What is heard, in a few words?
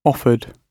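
"offered", British English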